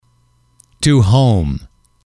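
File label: "to home", English, male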